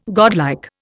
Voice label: "godlike", Indian